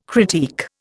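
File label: "critique", Indian English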